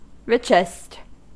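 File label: "richest", US English; pronunciations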